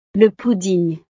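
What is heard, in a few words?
"le pudding", French; navidad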